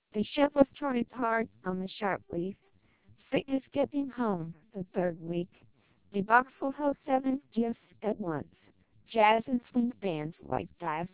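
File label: American speech, TWELP 480 bps vocoder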